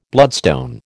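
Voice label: "bloodstone", American English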